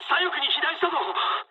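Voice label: left wing mood high, speech japanese; War Thunder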